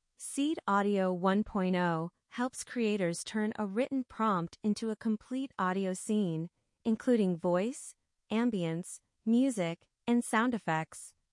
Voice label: simple narration